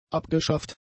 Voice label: "abgeschafft", German; adjectives